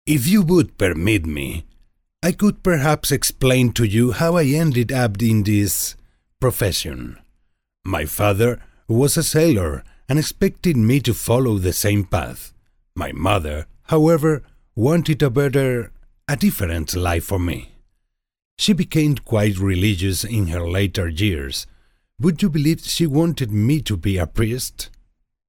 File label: Spanish Castilian Narrator; Piratel